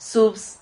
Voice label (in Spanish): "subs", Silabas